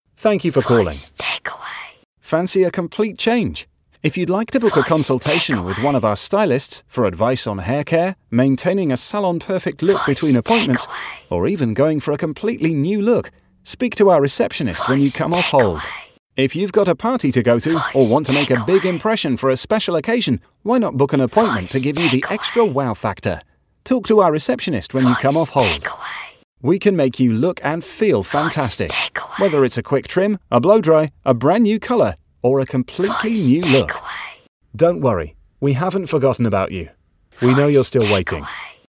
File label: Hairdressers Smooth Male